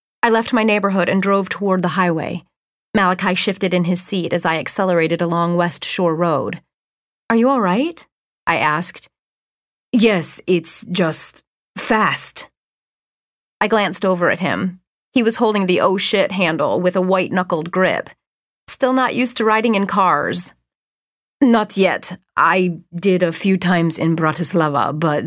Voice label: South German; SANCTUM